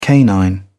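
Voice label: "canine", British English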